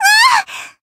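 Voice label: Voice damaged, Japanese; yukino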